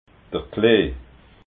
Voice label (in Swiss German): "klee", alsace